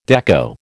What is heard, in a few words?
"dekko", American